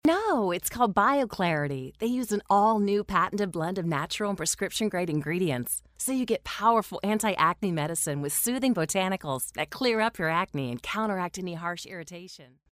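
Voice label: English US, female, narration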